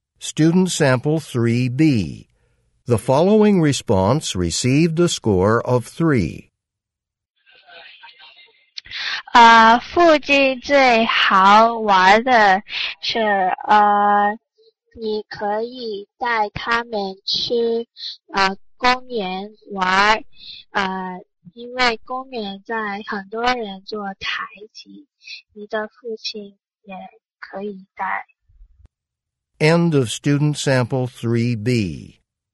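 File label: chinese conversation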